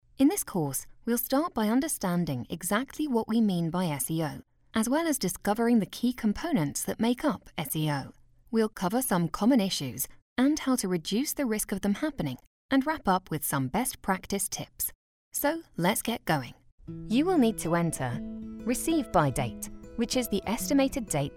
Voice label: british elearning; BRITISH » BFF and girl next door with a British accent.